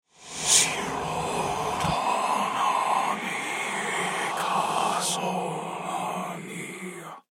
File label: Horror Evil Spell Voice